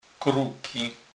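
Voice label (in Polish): "kruky", Kurpie dialect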